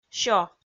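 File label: "сё", Komi, female; kpv audio dict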